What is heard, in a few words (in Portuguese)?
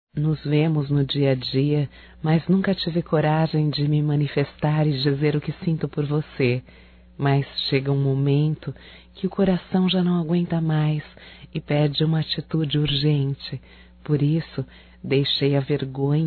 ROMANTICA PARA COLEGA DE TRABALHO(F), Românticas fem